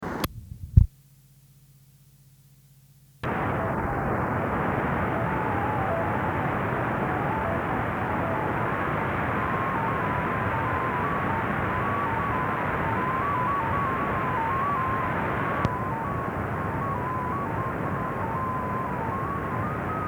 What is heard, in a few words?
13438 USB, number stations; dash number stations recordings; recordings from other places